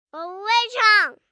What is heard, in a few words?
Mandarin, 1 boy, 4 yrs, Foreign, Mandarin - (I don't know how to sing), human voice, kid; child, speak, talk; Talking Sound Effects